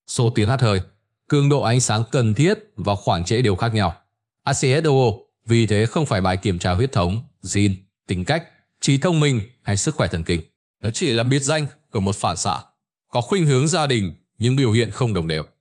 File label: narration